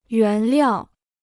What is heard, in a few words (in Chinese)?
"原料", Chinese